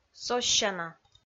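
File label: "соссяна", Komi-Zyrian, female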